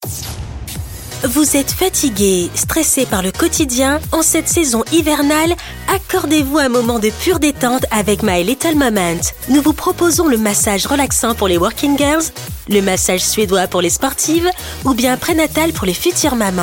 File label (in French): décontraction repos délassement du corps et de lesprit PUB Radio souria; MY LITTLE MOMENT; souriant accueil